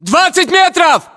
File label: threat distance, ru